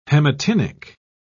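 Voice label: "haematinic", British English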